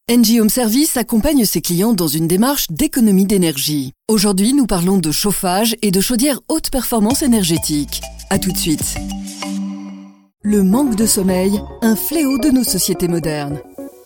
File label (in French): JOURNALISTIQUE